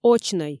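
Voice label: "очнӧй", Komi, female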